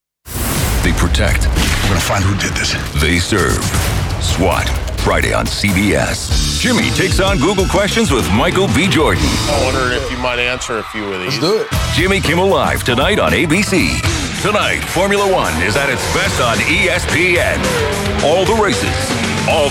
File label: Promos; Promos Demo